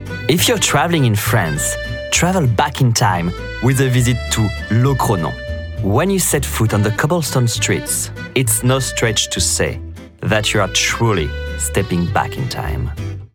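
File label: French English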